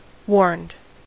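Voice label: "warned", American English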